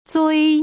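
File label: "zui", Putonghua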